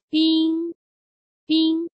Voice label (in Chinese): "冰" Chinese